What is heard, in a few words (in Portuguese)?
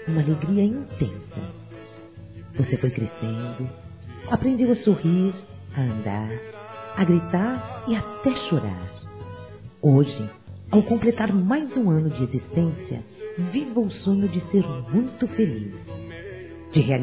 Aniversário 15 anos feminino; Aniversários; Telemensagens